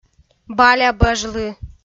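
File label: "балябӧж лы", Komi, female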